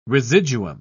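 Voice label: "residuum", British English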